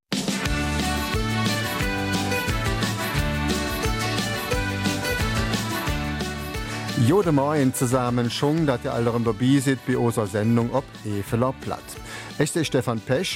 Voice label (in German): Eifeler Mundart; Silvio Gesell für jedermann